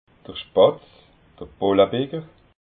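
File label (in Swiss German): "spatz", Alsatian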